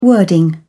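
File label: "wording", British English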